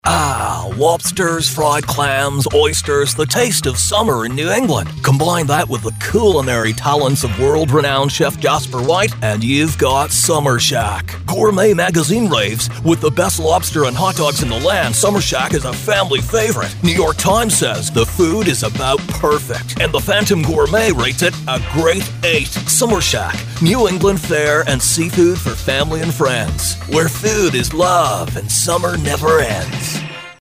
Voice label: SURFER DUDE voiceover